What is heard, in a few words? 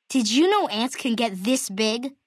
Male child greeting; Fallout NV